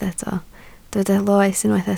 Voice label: "loes", Welsh

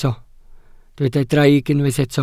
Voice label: "draig", Welsh

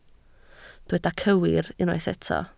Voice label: "cywir", Welsh

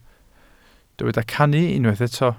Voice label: "cannu", Welsh

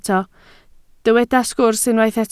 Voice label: "sgwrs", Welsh

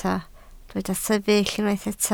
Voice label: "sefyll", Welsh

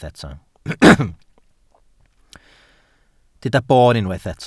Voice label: "boon", Welsh

